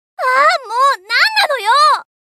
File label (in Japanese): エモーション（怒る）; 「あーもう！何なのよー！」